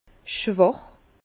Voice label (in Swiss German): "schwach", Bas Rhin; vin; faible